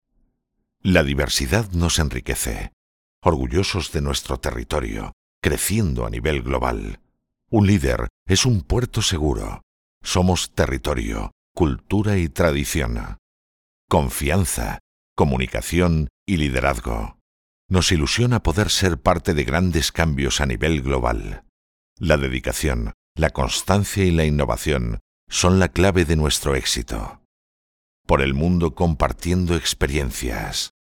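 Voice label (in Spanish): otros España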